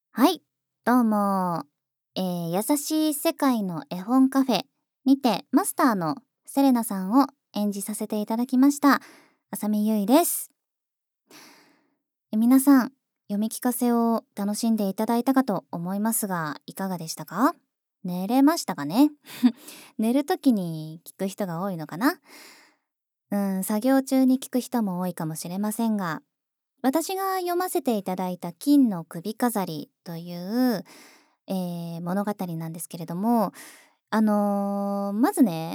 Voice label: フリートーク, ASMR

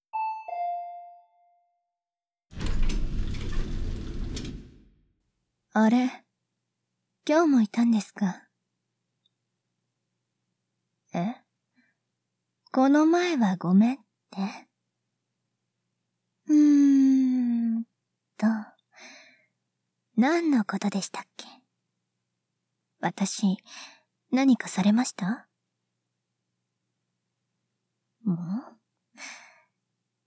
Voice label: 不機嫌, ASMR

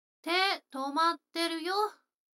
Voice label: 女の子 台詞

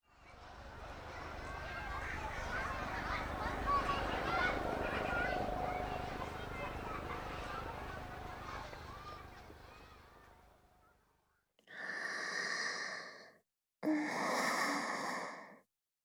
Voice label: ささやき; 言葉責め＆乳首舐め手コキ

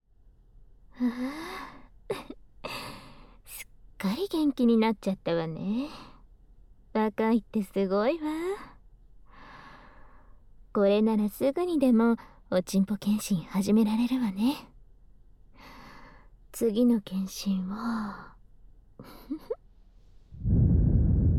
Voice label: 耳ふー囁き手コキとパイズリフェラ, ASMR

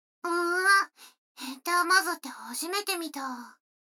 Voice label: 女の子 台詞

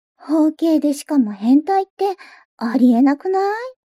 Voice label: mob 女子 台詞

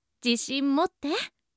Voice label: 応援ボイス

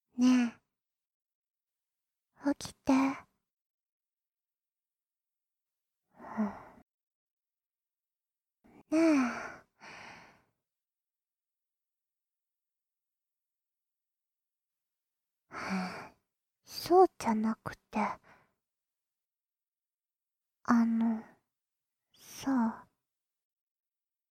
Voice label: ピロートーク; 必然的セックス欲求; 効果音なし

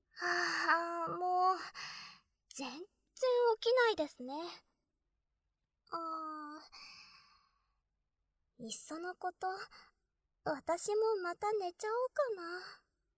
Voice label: 特典目覚まし音声

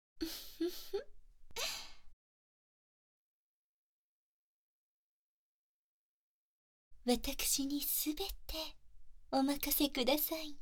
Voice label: 乳首舐め、耳舐め、カウントダウン射精（バイノーラルマイク 効果音なし）

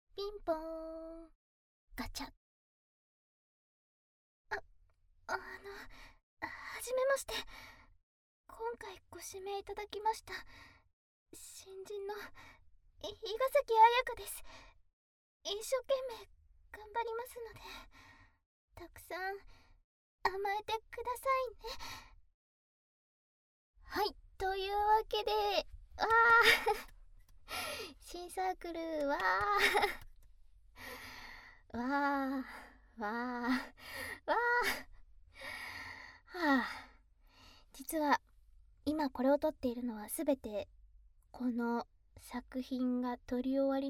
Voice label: フリートーク; 異種族専門風俗店～魔法のKiss～

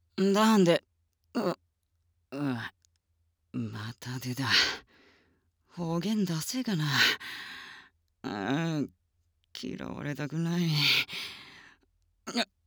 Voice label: 方言; 方言にゃおすぞ！

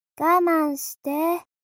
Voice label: mob 女子 台詞

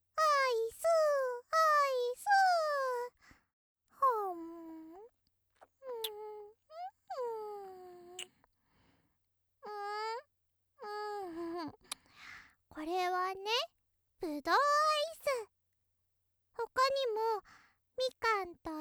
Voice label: ロリ 夏だよ

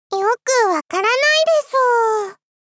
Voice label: よくわからないです～ 少女×高音域

